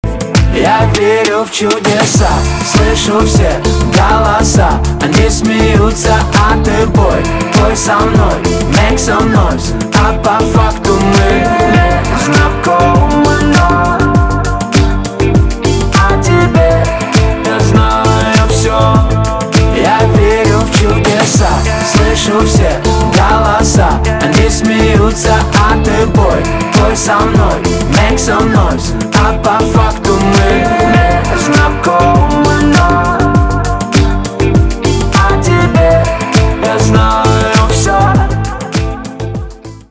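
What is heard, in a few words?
ringing voices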